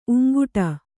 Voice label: "ಊಂಕಿಡು", Kannada

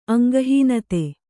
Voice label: "ಅಂಗಹೀನತೆ", Kannada

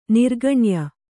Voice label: "ನಿರ್ಗಣ್ಯ", Kannada

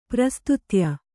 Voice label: "ಪ್ರಸ್ತುತ್ಯ", Kannada

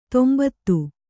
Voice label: "ತಂಬತ್ತಿ", Kannada

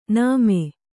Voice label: "ನಮೆ", Kannada

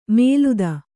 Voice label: "ಮೇಲುದ", Kannada

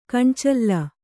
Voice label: "ಕಣ್ಚಲ್ಲ", kannada

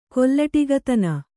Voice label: "ಕೊಲ್ಲಟಿಗತನ", Kannada

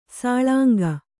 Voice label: "ಸೂಲಂಗಿ", Kannada

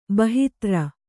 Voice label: "ಬಾಹಿತ್ರ", Kannada

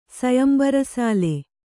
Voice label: "ಸೊಯಂಬರಸಾಲೆ", kannada

